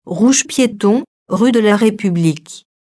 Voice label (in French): Message feux sonore Rouge; Rue République